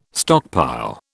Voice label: "stockpile", British